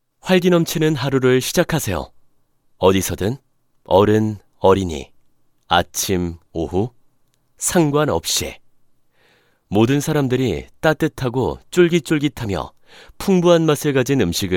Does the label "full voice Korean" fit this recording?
Yes